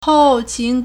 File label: "hou4 qin2", Chinese